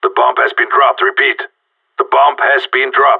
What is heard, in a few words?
spotted loose bomb, radio; GSG9